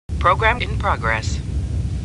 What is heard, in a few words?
Computer Voice; Star Trek; StarTrekLCAR